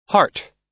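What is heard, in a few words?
"heart", US English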